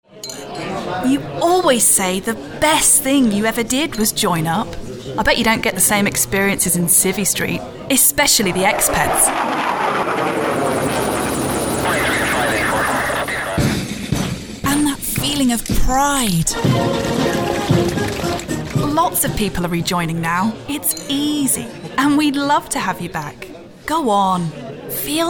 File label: english british promos